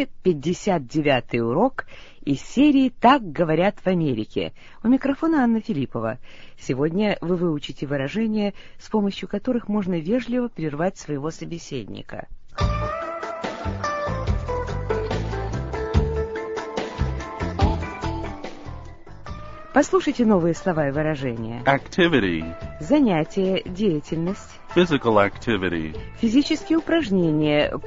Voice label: russian english